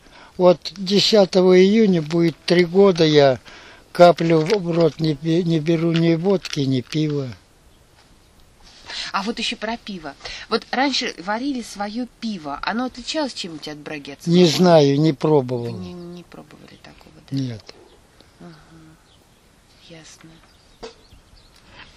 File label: middle ob dialect; клёш